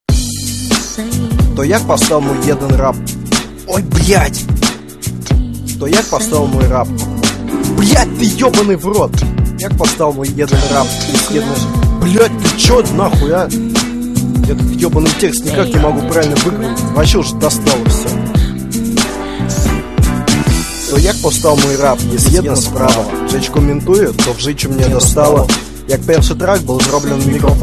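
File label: rap na polskom; rep na polxskom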